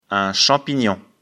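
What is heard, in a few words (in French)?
"champignon", French; afrikalan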